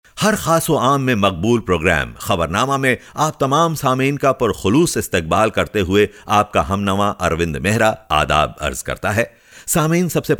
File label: Urdu; foreign languages